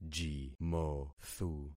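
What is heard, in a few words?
"jimothu", concatenated syllables; Affixing experiment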